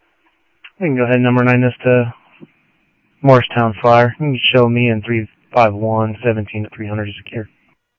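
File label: Sheriff West County, scanner audio trunk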